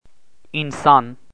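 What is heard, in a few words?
"insan", Turkish, male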